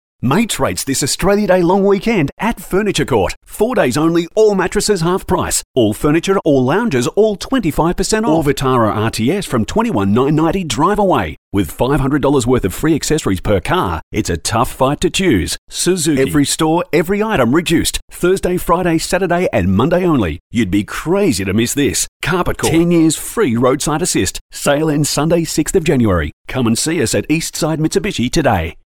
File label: Hard Sell